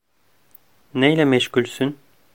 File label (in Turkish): "neyle meşgulsün", Turkish